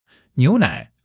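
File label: "niu2 nai3", Mandarin Chinese; 基础汉语40课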